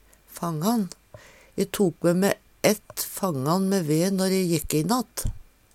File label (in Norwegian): fangan, Numedalsmål